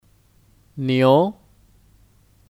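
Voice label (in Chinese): "牛", Mandarin Chinese; Niú (Kata benda): Sapi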